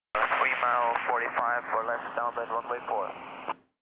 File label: chatter; rigs of rods